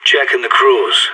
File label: checking crawls, radio; SAS